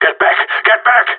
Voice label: bomb fallback, radio; GSG9